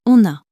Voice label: "on a", French